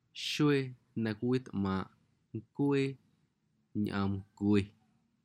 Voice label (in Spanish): Amuzgo